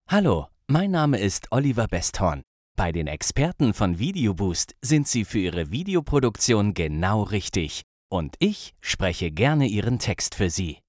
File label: Voiceover